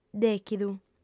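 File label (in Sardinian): "dèchidu", female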